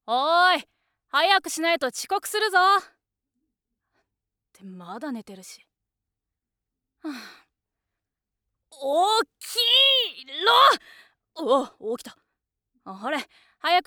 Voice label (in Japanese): Shonen Kougakunen